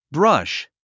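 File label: "brush", English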